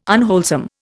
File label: "unwholesome", Indian